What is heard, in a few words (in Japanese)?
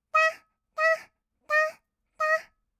たったっ, kawaii voice; ASMR; 合いの手; 1200 kawaii voice!!